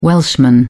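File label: "welshman", British English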